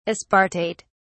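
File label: "aspartate", English, British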